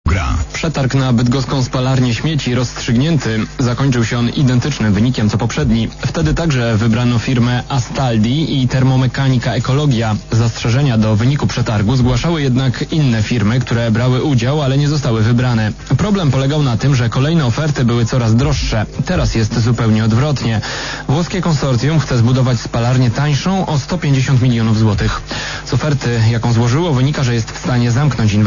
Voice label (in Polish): radio; rozstrzygnięto przetarg na budowę bydgoskiej spalarni odpadów